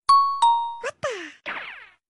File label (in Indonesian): Lucu Bahasa Korea Notification